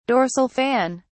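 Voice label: "dorsal fin", British English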